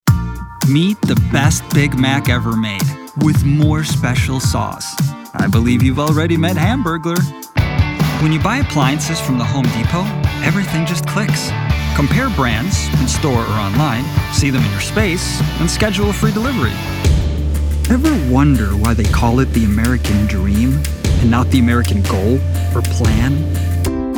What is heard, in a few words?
COMMERCIAL